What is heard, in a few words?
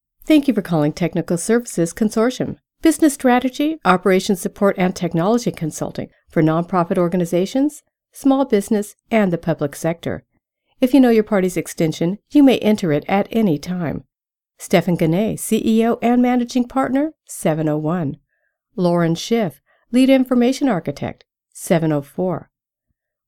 Out going phone message